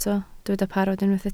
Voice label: "parod", Welsh